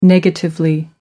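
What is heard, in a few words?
"negatively", British English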